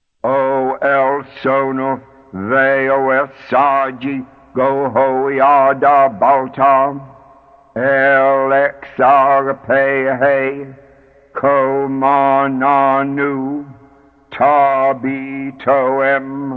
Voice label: Short Enochian Call from the Portal Ritual (English); Enochian Magick; The World of Enochian Magick; Israel Regardie